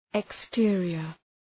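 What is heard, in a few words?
"exterior", English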